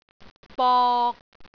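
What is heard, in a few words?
"bok3", Cantonese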